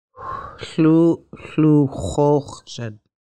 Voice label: sandals, Lushootseed